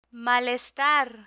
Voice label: "malestar", Spanish; medical medicine vocabulary; learn spanish